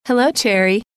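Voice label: "hello", female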